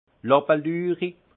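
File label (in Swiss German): "lappeluri", alsace Haut-Rhin; vin; boisson insipide piquette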